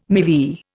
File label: "MeV", Indian